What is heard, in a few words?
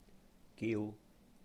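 "giu", Cantonese; call; is called; to order; 叫; giu3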